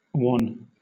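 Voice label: "wan", British English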